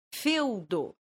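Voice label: "feŭdo", esperanto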